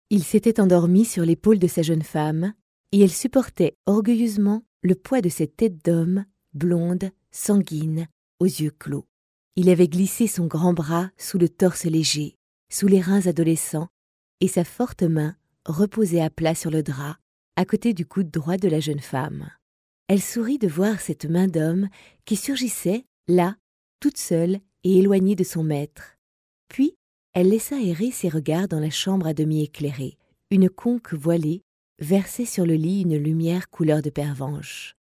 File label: female french voice over narrative, france